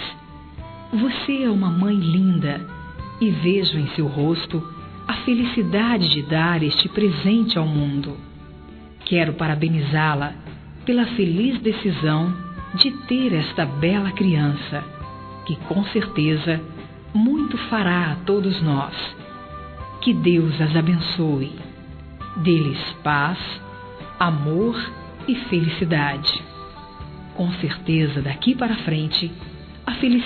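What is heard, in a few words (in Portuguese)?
nascimento de bebê, feminino; Telemensagens; Parabenização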